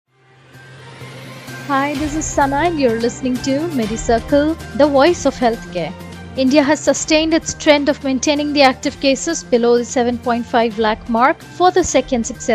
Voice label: english news